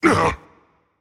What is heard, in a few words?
ouch rage; chaac